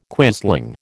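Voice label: "quisling", American English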